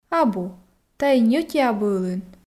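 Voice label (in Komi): абу тайӧ ньӧти абу ылын, Komi, female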